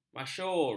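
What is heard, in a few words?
"masyhur", Malay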